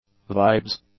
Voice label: "vibes", English; nouns

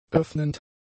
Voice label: "öffnend", German; adjectives

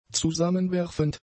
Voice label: "zusammenwerfend", German; adjectives

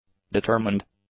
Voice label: "determined", english; adjectives

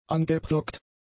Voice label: "angepflockt", German; adjectives

